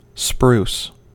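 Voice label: "spruce", US English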